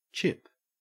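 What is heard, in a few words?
"chip", Australian English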